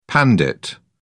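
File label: "pandit", British English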